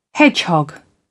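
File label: "hedgehog", British English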